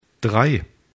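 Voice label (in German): "drei", German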